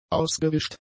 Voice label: "ausgewischt", German; adjectives